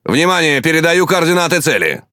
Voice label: Speech Quick Commands Enemy Ship, RU; World Of Warships

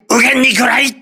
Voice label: voice message torpedo right, crew ships japanese

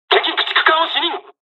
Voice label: dialog, dialogs japanese; us midway; War Thunder

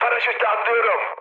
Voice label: bailout, mood high, speech tur; War Thunder

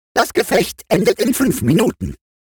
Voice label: robot TIMER 5; World Of Warships

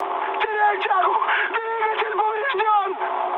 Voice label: damaged engine, mood high, speech ru; War Thunder

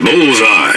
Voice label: Speech Hit Confirmation, EN GB; World Of Warships

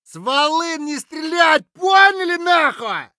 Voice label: silence; Escape from Tarkov; scav6

